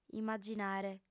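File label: "immaginare", Sardinian, female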